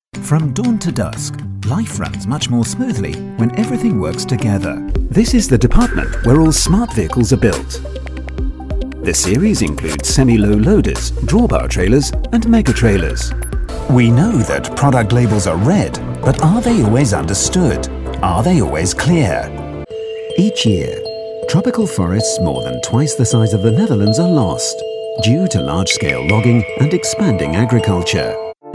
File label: Corporate Mix